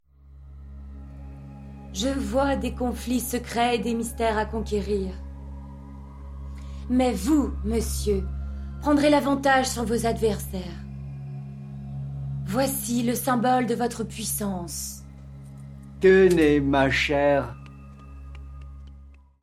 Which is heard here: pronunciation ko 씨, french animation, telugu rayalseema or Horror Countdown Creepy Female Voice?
french animation